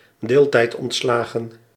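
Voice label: "deeltijdontslagen", Dutch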